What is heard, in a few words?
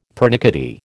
"pernickety", American